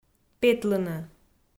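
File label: "петлыны", Komi, female; audio dict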